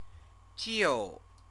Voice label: "jiu", Chinese

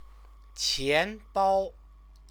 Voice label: "qianbao", Chinese

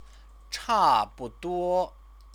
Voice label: "chabuduo", Chinese; 差 不 多; nearly; idiomatic expression; Chinese lessons

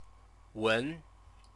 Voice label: "wen", Chinese

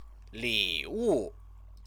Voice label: "liwu", Chinese

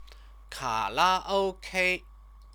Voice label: "kalaok", Chinese

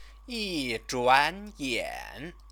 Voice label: "yizhuanyan", Chinese

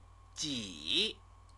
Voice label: "ji", Chinese

